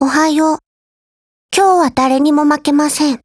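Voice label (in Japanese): hello, Japanese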